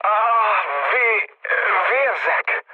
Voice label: damaged pilot, mood low, speech hun; War Thunder